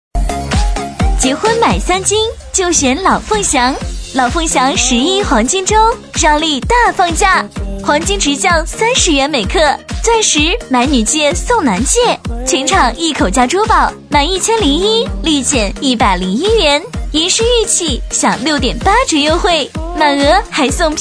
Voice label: 女声 促销 珠宝

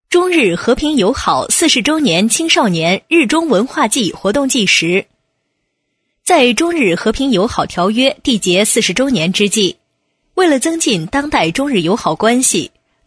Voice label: 女声 新闻 新闻播报

